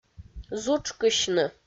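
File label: "зутшкысьны", Komi, female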